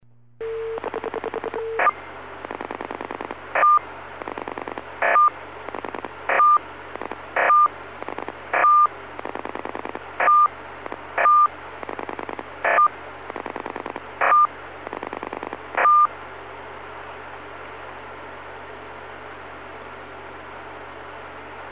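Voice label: Russian Phones, Satcom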